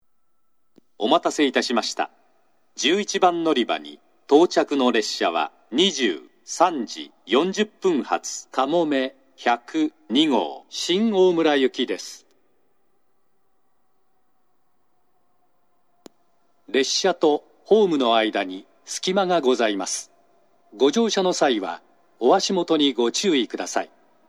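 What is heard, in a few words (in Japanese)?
11番のりば接近放送, 男声, 西九州新幹線ホーム 自動放送; 11番のりば接近放送（かもめ102号 新大村行き）; 九州新幹線同様、列車がホームに差し掛かったら放送が流れます。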